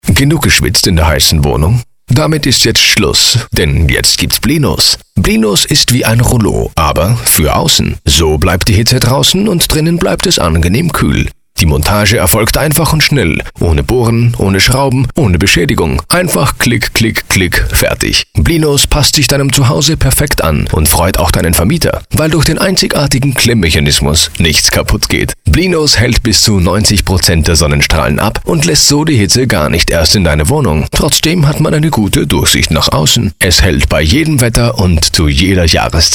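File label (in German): werbung, Austrian German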